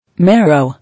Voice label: "Marrow", English

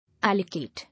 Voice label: "Allocate", English

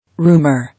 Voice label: "Rumour", English

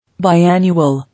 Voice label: "Biannual", English

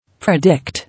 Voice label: "Predict", English

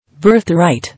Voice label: "Birth right", English

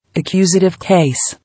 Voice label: "Accusative case" English

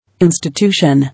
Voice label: "Institution", English

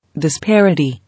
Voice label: "Disparity", English

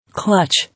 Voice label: "Clutch", English

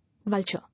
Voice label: "Vulture", English

